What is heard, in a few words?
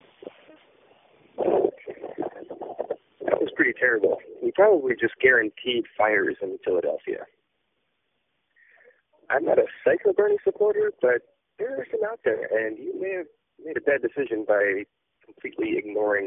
voicemail